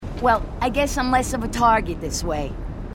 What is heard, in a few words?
female marine